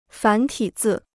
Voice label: "繁体字", Chinese